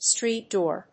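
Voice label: "street door", English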